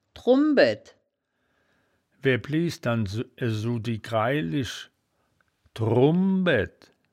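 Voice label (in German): "drumbaedd", wittlicher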